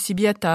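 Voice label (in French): piatta si, Corsican, Moita Verde (nord); Corse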